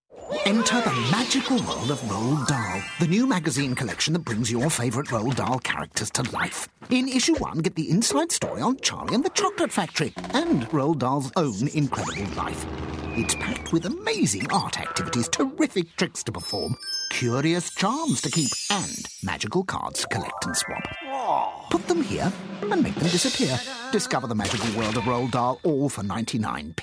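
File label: commercials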